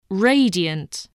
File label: "radiant", english